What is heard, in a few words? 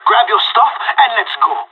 radio lock n load; Counter Strike Model Pack; CS:GO